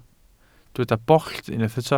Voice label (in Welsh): "bollt", Welsh